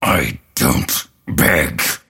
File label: lasthit pain; dota; grimstroke